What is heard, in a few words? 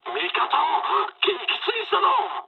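player killed ally mood med, speech japanese; War Thunder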